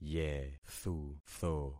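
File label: "yethutho", concatenated syllables; Affixing experiment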